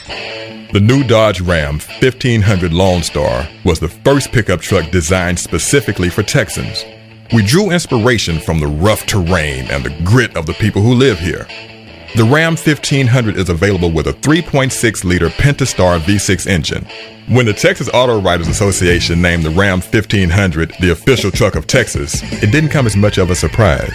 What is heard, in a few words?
car commercial